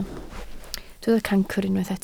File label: "cancr", Welsh